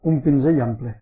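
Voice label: paintbrush large description, Catalan; household; tools; stamps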